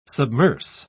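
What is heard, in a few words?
"submerse", British English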